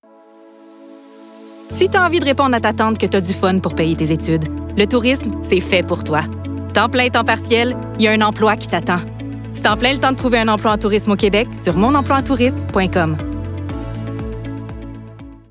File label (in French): Amicale Dynamique, Dynamique - Amicale - Québécois naturel; Emploi tourisme Québec